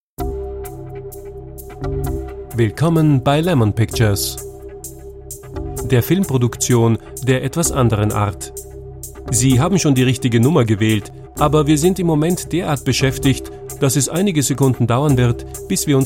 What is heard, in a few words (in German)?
telefonansage